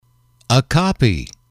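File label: a Copy, english, male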